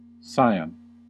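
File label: "scion", US English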